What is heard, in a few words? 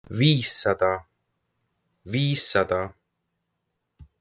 500, Estonian; the talking estonian phrasebook